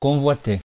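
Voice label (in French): "convoiter" French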